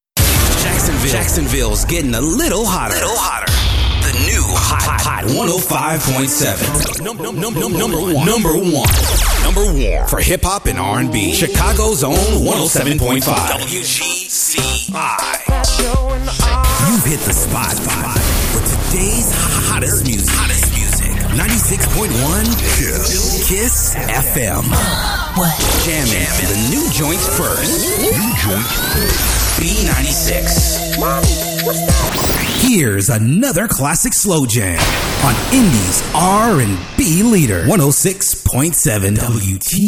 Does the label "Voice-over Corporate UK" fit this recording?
No